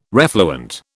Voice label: "refluent", British